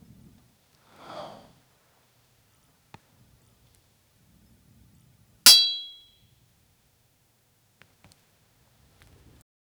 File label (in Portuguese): cansado o jovem guerreiro, metal armor